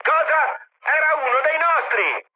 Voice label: player killed ally mood high, speech it